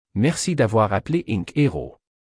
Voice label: welcome, phone